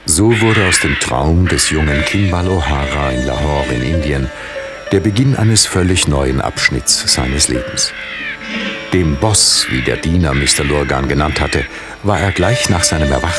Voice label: narrat